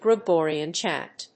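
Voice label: "Gregorian chant", English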